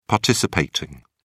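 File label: "participating", British English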